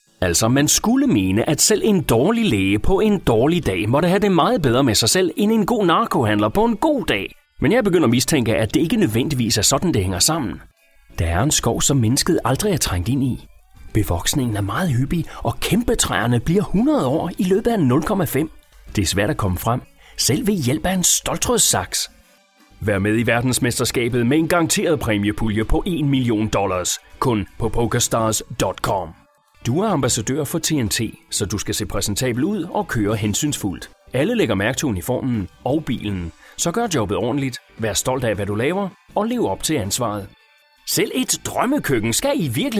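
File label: Danish General; GoLocalise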